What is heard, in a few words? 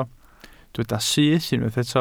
"syth", Welsh